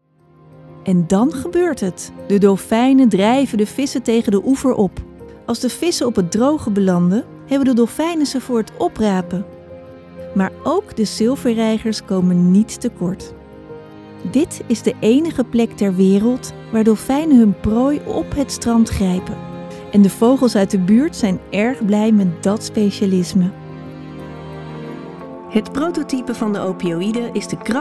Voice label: CORPORATE

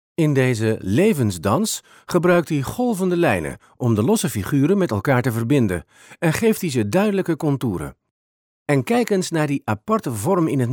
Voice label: audioguide

